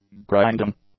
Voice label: "grinding", English; nouns

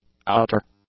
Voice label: "outer", English; adjectives

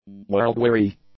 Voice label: "world-weary", english; adjectives

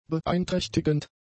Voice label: "beeinträchtigend", German; adjectives

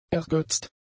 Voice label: "ergötzt", German; adjectives

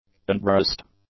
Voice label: "unbraced", English; adjectives

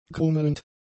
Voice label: "grummelnd", German; adjectives

